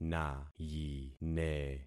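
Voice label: "nayine", concatenated syllables; Affixing experiment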